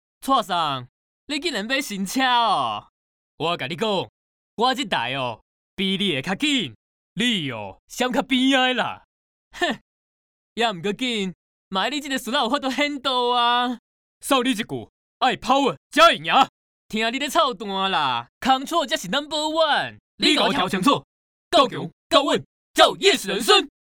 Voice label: Acting

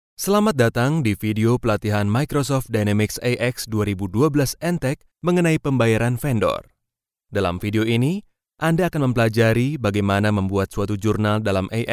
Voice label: E Learning

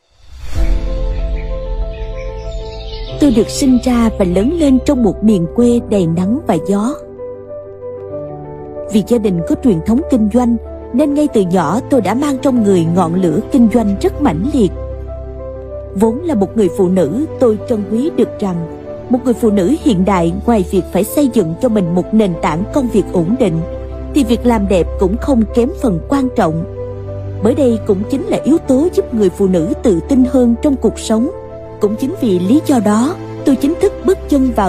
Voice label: Narration

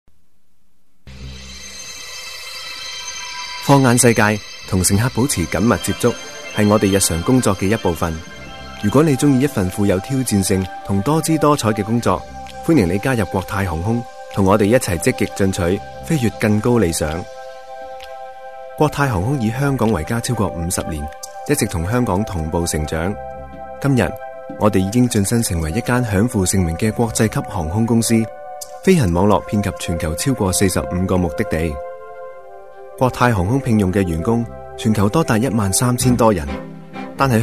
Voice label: Commercial

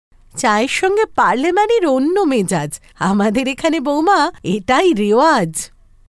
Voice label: TVC Female Sample

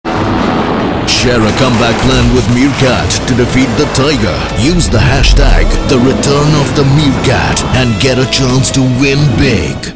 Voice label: Commercial

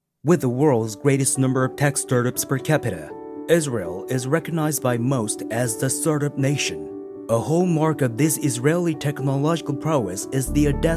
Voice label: Narration

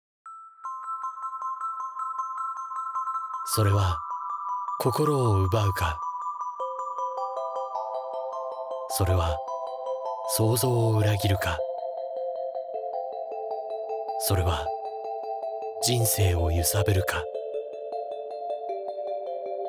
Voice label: Commercial

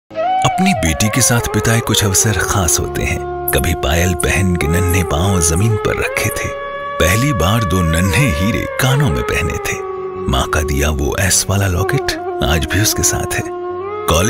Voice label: Commercial

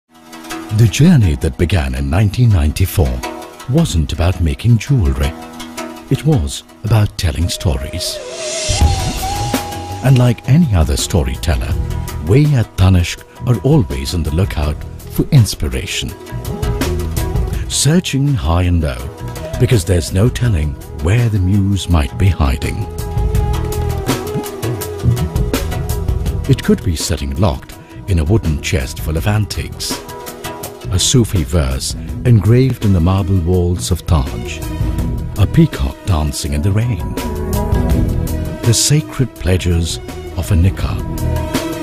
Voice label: Commercial

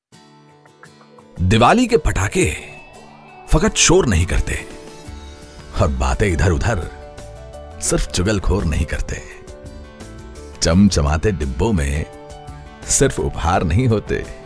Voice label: Commercial

